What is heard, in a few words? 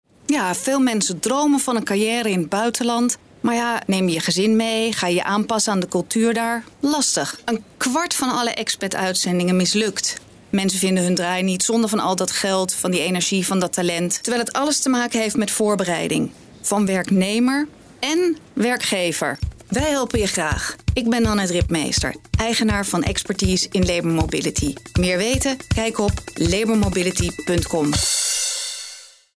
Commercial; Labour Mobility